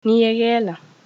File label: leave me alone zulu